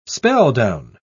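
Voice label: "spelldown", English, British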